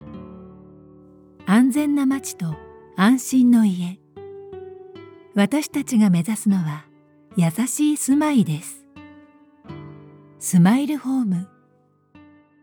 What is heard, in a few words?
narration woman